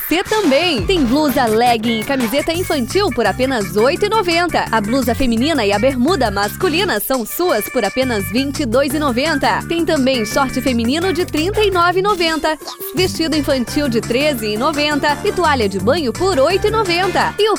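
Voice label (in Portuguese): SPOT Dia das Crianças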